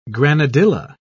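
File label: "granadilla", English, British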